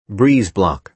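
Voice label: "breeze-block", American English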